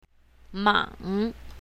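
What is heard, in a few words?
"mang3", Chinese pinyin, south, female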